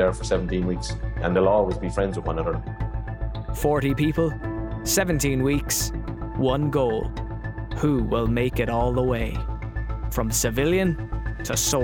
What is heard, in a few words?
Army Recruits Doc